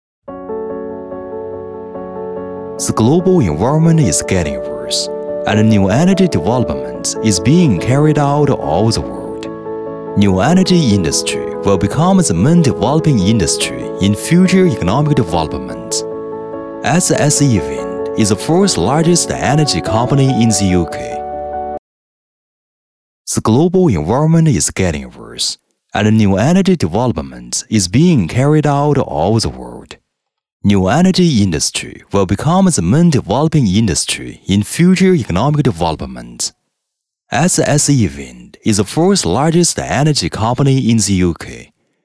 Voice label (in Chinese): W男, 英语企业宣传片, 大气科技; SSE-Wind